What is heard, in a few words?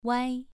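"wai" Mandarin Chinese, second tone, pronunciation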